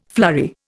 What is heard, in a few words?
"flurry", Indian